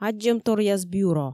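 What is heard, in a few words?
"аддзӧмторъяс бъюро", Komi, female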